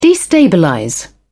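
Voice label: "destabilize", British English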